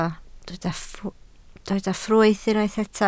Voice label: "ffrwyth", Welsh